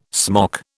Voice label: "smock", British

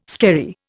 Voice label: "skerry", Indian

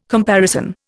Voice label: "comparison", Indian

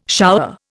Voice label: "shower", Indian

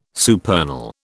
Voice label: "supernal", British

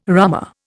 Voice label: "rummer", Indian

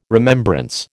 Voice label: "remembrance", American

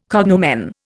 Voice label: "cognomen", Indian

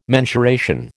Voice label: "mensuration", American

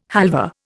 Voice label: "halva", Indian

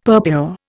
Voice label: "purpure", Indian; Dictionary

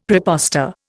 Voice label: "prepostor", Indian; Dictionary

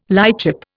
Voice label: "lightship", Indian

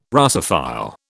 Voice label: "Russophile", British

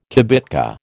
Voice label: "kibitka", American

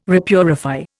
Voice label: "repurify", Indian

